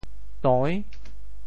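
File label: "toi~6", Teochew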